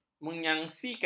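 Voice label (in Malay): "menyangsikan", Malay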